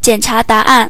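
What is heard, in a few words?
check answer, Chinese; gcompris-data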